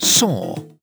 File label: LoFi Words, LoFi Vocals